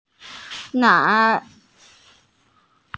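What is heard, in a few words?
"nan2", Mandarin Chinese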